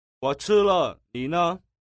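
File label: "wochile, nine", Mandarin Chinese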